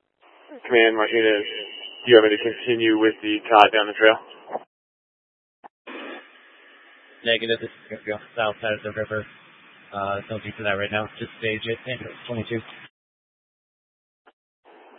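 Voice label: Incident Tac 1, Scanner audio recording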